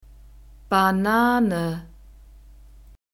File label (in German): "Banane", German; fruits